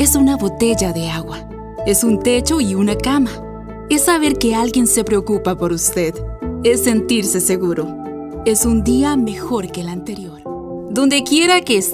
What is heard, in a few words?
Showcase Demo - SP, has a young, friendly sound with great energy